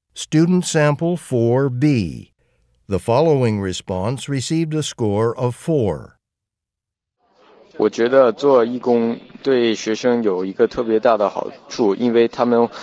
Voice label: chinese convo; Conversation 4 Sample B (Score of 4)